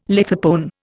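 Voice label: "lithopone", Indian English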